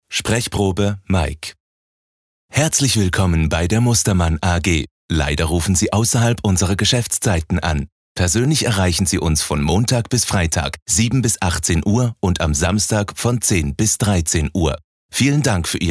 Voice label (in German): TVA DE CH